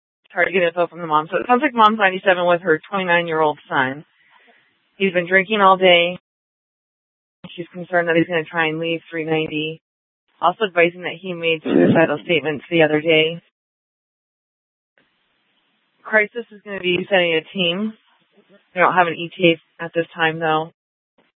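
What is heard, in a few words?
Police Department North Dispatch, scanner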